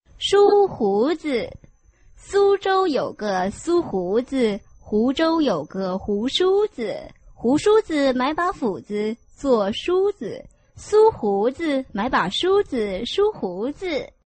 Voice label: chinese tongue twister